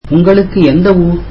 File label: tamil Where are you from